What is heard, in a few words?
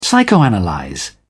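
"psychoanalyse", British English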